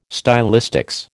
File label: "stylistics", American